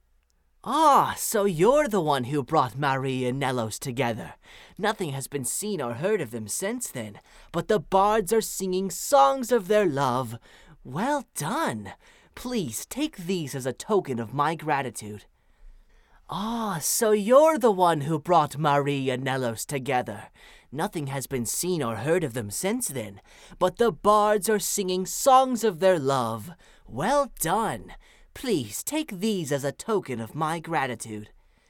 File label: breton, voice auditions